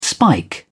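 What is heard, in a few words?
"spike", British English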